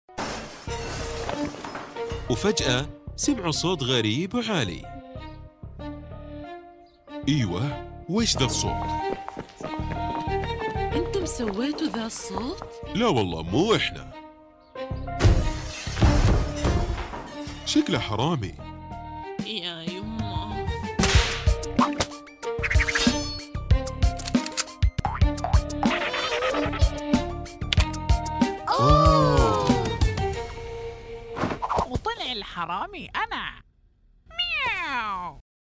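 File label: Arabic Commercial; voice demos; GoLocalise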